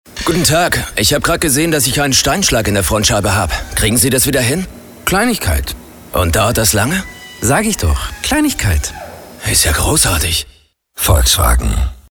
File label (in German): Funkspot; VW